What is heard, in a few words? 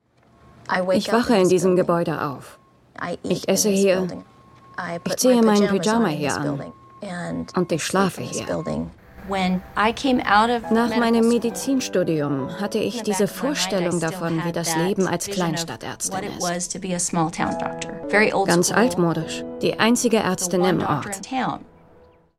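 german documentaries, female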